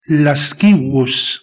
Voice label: "lascivus", Latin

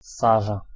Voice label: "sazha", Ukrainian

